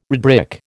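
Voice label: "redbrick", American English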